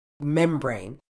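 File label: "membrane", British English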